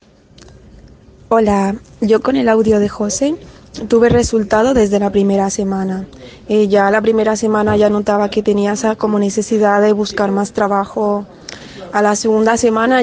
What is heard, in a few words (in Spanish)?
testimonio